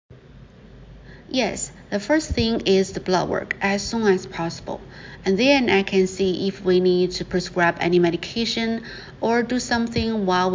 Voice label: Medical Certified Oral Mandarin Practice